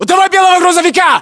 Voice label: landmark near truck white, ru